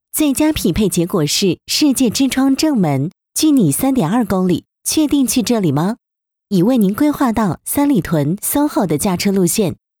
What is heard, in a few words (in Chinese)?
汽车导航语音